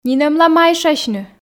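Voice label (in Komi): нинӧмла майшасьны, Komi, female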